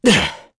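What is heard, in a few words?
Vox Landing, voices heroes English; Lusikiel; kingsraid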